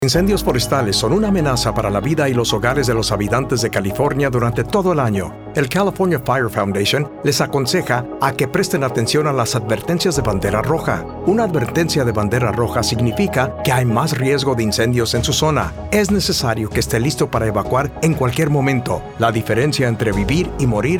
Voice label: Spanish; CA FIre Foundation; 5 minute Plan